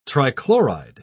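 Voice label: "trichloride", British English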